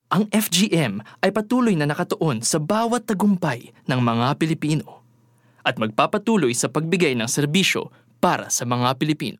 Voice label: Tagalog (Professional, Sincere)

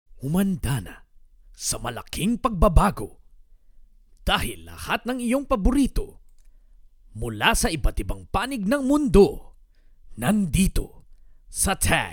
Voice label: Taglish, Trailer, Bold

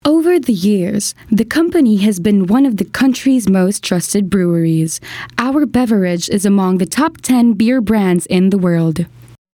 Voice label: English, Informative, Clear, Natural

